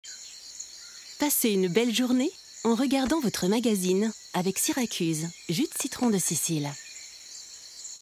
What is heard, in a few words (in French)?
Billboard; Siracuse